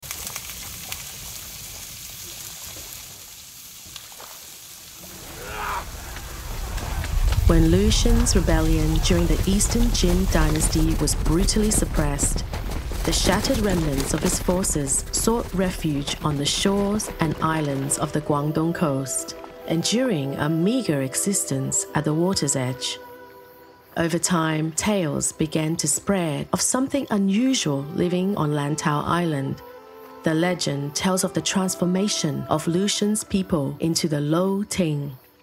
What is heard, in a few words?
Intro Narration; City Of Shells